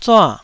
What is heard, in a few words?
"zua4", taiwanese